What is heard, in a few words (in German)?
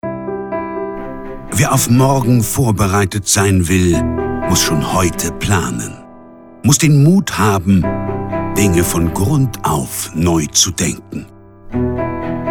telefonansagen sprecher; alec baldwin